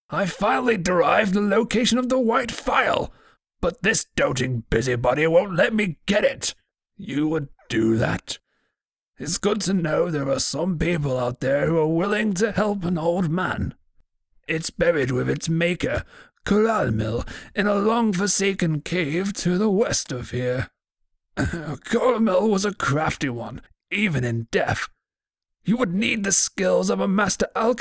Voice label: old guy grumpy, imperial voice auditions

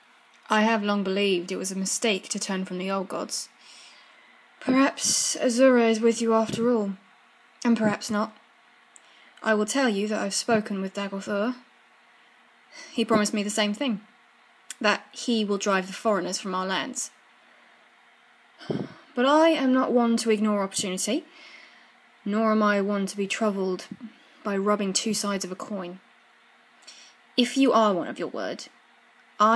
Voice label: dark elf female, voice auditions

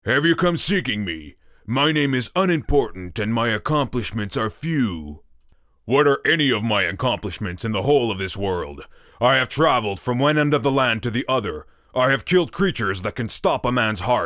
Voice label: orc; umbra

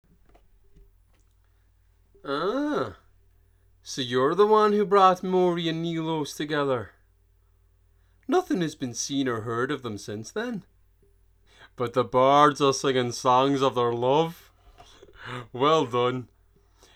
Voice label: breton irish audition

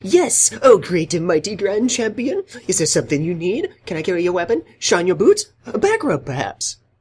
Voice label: wood elf adoring fan, voice acting

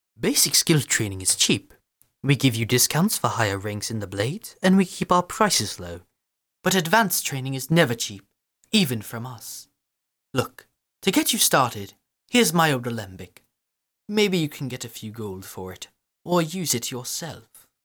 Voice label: highelf, high elf voice auditions

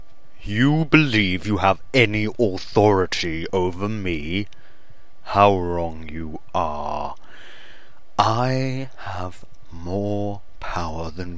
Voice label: deep british

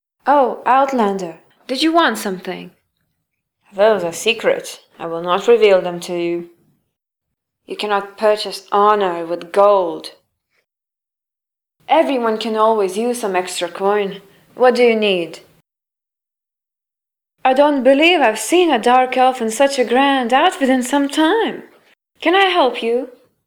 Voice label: breton audition, breton voice auditions

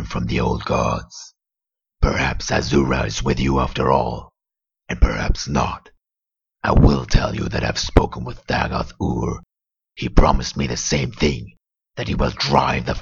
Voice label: dark elves voice audition; skywind

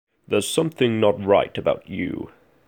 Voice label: redguard generic greeting, voice acting

